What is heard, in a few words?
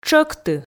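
"тшӧкты", Komi, female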